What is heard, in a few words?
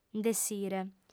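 "nd'essire", female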